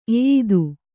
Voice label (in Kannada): "ಎಯ್ದು", Kannada